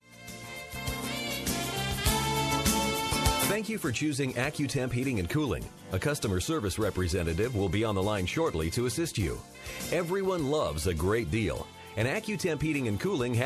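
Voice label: hvac on hold message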